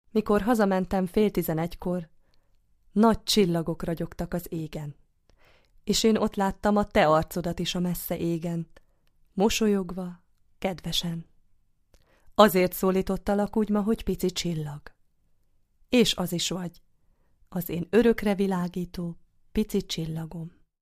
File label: hungarian